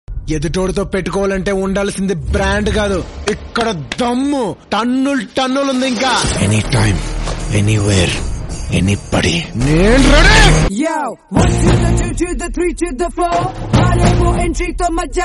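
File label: telugu dialogues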